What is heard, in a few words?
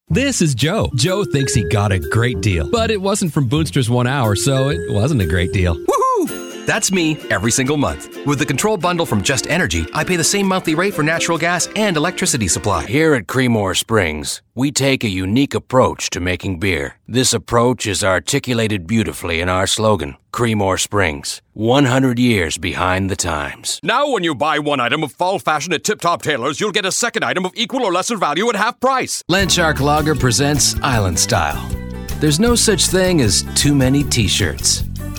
commercial; TaDa